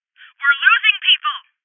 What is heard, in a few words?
Voices HeavyLosses Female